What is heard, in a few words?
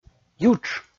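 "ютш", Komi, male; kpv audio dict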